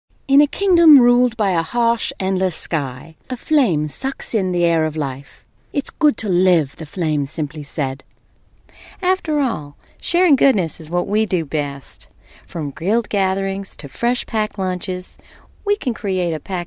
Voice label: female american voice over character; english american